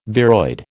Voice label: "viroid", American